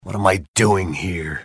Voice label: jeers; tf; max payne